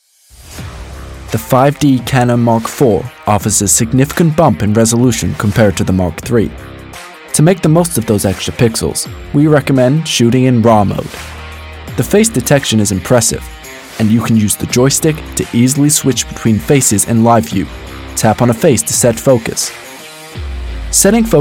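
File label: US English, Commercial; GoLocalise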